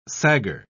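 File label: "sagger", British English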